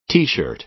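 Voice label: "t-shirt", American English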